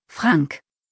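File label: "Frank", German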